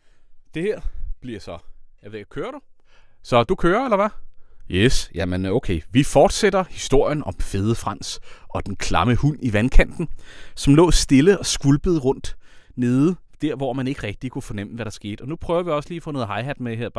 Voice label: speech clean; podcast helper